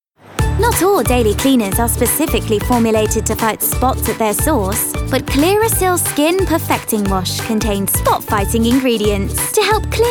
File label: commercial